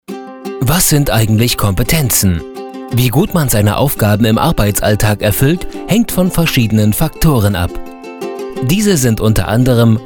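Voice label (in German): Erklärfilm emotional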